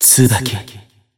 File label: System Voice; BBCF; Jin